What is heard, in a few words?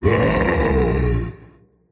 anger; tiny